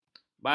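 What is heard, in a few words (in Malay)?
"baki", Malay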